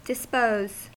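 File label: "dispose", US English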